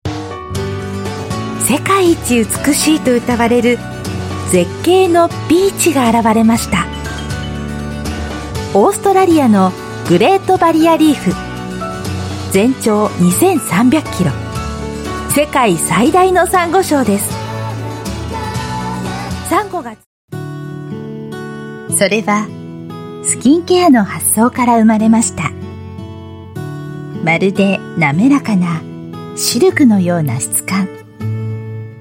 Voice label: Japanese Voice reel